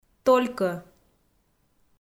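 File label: "толькӧ", Komi, female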